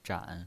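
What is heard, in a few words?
"zhan" Mandarin Chinese pinyin voice, third tone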